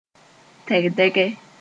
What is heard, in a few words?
"tɛgdɛgɛ", Moore